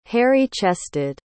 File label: "hairy chested", pronounce